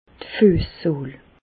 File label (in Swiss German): "fuessohl", Bas Rhin; medecine; voûte plantaire